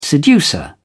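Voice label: "seducer", British English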